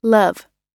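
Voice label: "love", English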